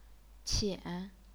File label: "qian3", chinese pinyin